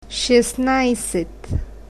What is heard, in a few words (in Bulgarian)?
shestnajset, bulgarian, numbers